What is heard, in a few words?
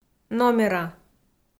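"номера", Komi-Zyrian, female; audio dict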